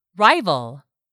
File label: "RIVAL", english; 3000 words